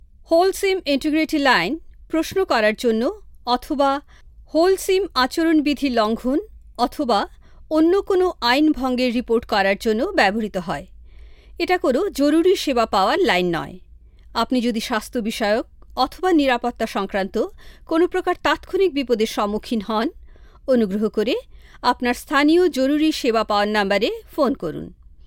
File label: ivr